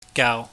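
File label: "gaau", Cantonese, mid level tone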